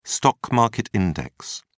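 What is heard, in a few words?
"stock market index", English, British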